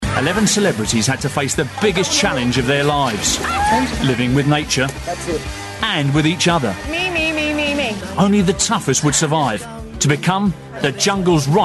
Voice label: promo